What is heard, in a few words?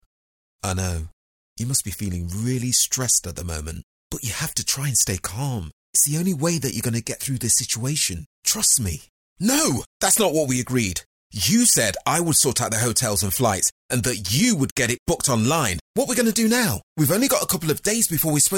Natural Sounding, voiceover